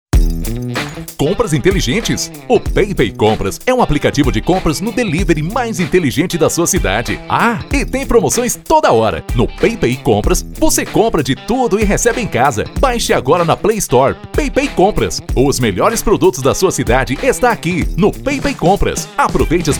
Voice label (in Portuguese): Spot Simples Trilha Pop Rock